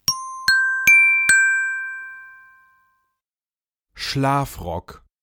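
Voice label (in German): "Schlafrock", German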